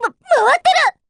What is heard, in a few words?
spin, jp; p4u